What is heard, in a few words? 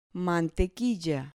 "mantequilla", Spanish